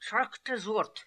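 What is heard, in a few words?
"Chrkhrta Zoorrt", pronunciations; Fourth Way; Beelzebub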